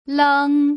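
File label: "leng", Mandarin Chinese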